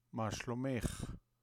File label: Hebrew How are you female; Greetings